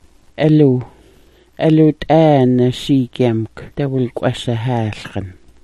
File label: "alu", smalgyax